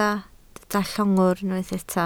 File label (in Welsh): "llongwr" Welsh